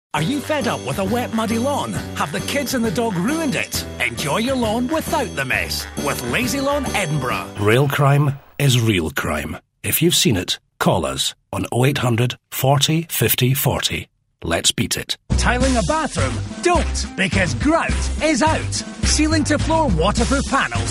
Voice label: advert mix

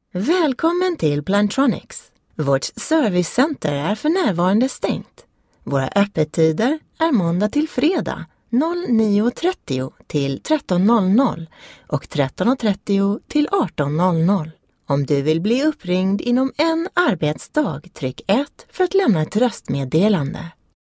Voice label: swedish, ivr

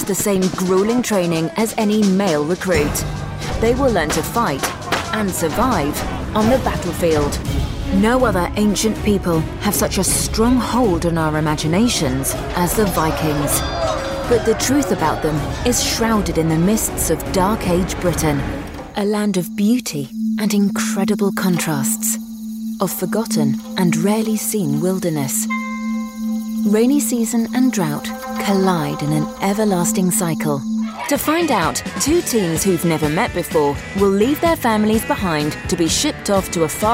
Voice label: narration showreel

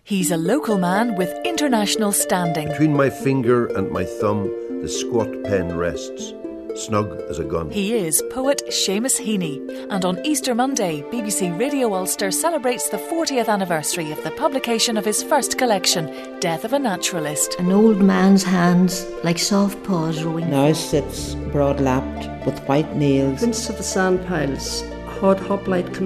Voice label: northern irish, promo

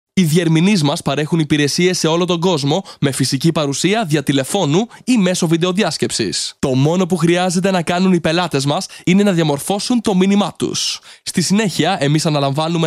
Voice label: greek male corporate and ivr

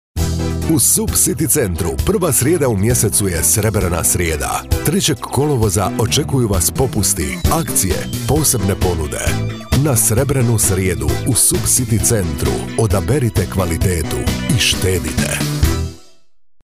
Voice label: croatian commercials

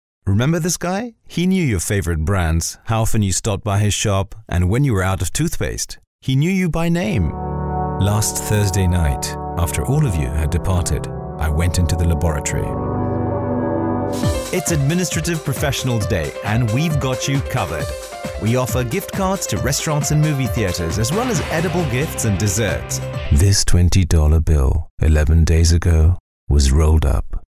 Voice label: indian male english accent

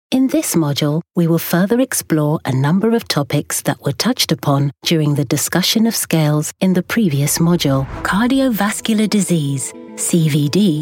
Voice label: london voice e-learning

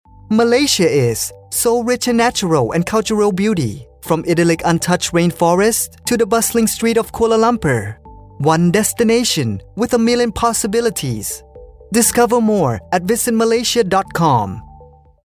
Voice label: thai male english

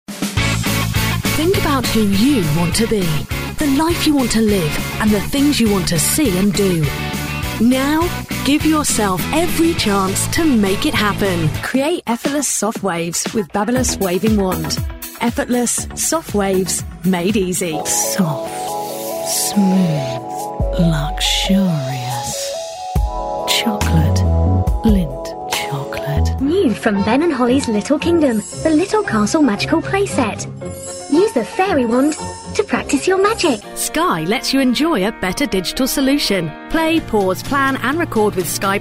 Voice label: upbeat

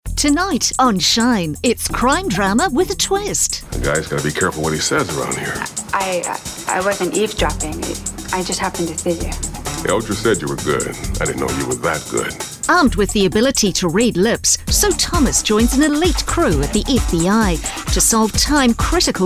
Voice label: New Zealand promo

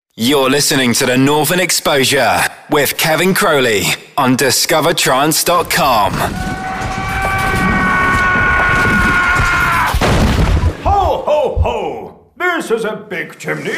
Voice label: imaging

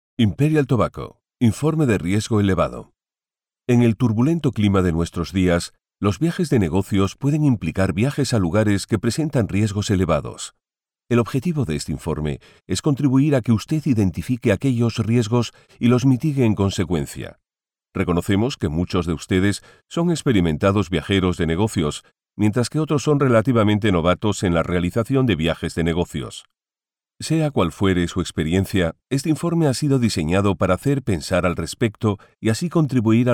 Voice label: corporate

